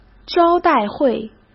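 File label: "招待会", Mandarin Chinese; hsk; chinese flashcards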